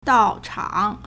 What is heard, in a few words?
"dao4 chang3", Chinese